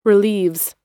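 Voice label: "relieves", American English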